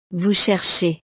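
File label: "vous cherchez", French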